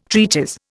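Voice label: "treatise", Indian English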